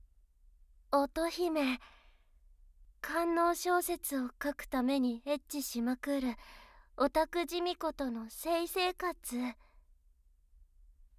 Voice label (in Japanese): タイトルコール